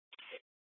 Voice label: Dispatch; Scottsdale